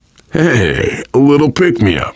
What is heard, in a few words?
take pills, voice, biker; Left 4 Dead 2; survivor